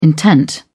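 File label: "intent", British English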